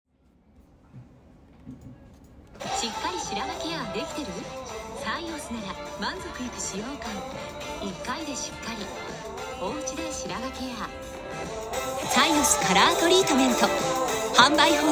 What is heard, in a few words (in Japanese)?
イメージボイス